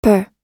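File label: "per", American English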